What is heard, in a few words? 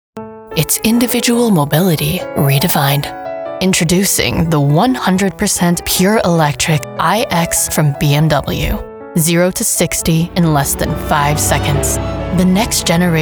commercial